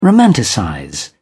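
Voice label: "romanticize", English, British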